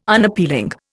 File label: "Unappealing", Indian